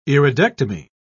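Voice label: "iridectomy", English, British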